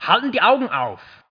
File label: german; SpecOps2 MPlayer Demo